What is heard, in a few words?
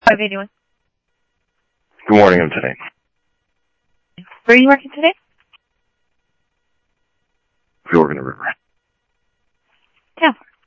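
Lakes Sheriff Lake Patrol, scanner audio trunk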